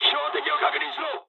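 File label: player friendly fire mood high, speech japanese; War Thunder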